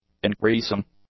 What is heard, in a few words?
"increasing", English; adjectives